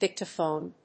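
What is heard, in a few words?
"Dictaphone", English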